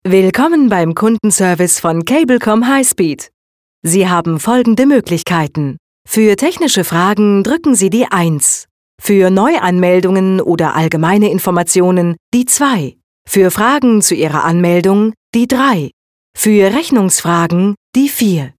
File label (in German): telefon, deutsch frauen